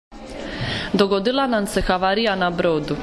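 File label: "havarija", Croatian dialect; veće oštećenje broda ili teži kvar na brodskom motoru zbog kojeg brod ne može ploviti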